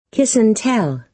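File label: "kiss-and-tell", American English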